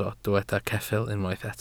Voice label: "ceffyl", Welsh

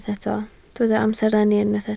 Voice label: "amserlenni", Welsh

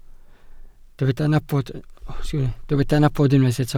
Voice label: "nabod", Welsh

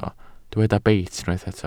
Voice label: "beit", Welsh

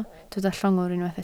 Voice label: "llongwr", Welsh

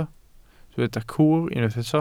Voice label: "cwr", Welsh

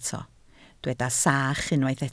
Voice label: "sach", Welsh

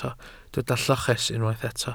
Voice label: "lloches", Welsh

